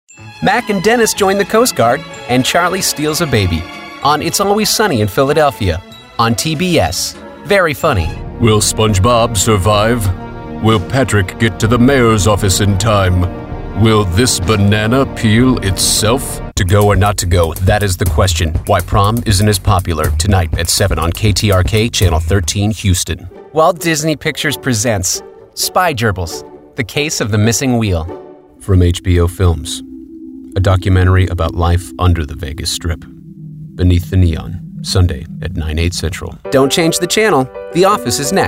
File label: Promo